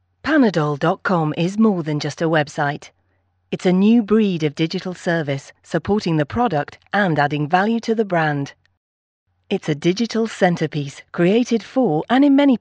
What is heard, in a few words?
British F, British English